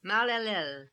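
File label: "Mal el Lel", pronunciations; Fourth Way; Beelzebub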